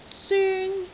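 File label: "syun1", Cantonese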